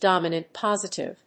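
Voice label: "dominant positive", English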